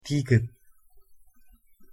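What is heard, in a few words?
"ᄃ", Korean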